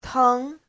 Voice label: "teng2", đau, nhức; (tính từ) đau, nhức

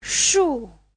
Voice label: "shu4", cây; (danh từ) cây

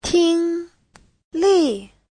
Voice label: "听力", Hán ngữ; (danh từ) môn nghe

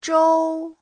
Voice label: "zhou1", Châu

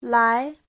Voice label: "lai", Hán ngữ; (động từ) đến

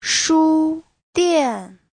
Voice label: "书店", Hán ngữ

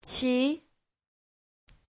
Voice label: "qi", Hán ngữ

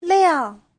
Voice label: "liang4", chiếc

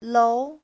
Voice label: "lou2", tòa nhà, lầu

